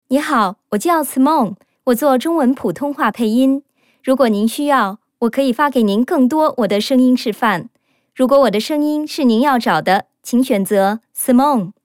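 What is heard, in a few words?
Chinese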